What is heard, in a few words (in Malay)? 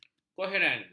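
"koheren", Malay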